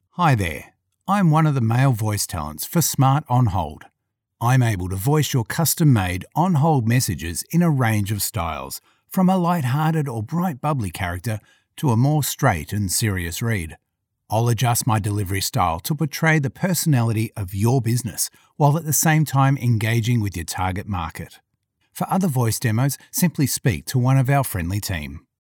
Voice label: Male; Voice Over artists; Smart On Hold Messages